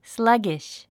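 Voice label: "sluggish", English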